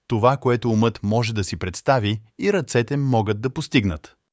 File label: bulgarian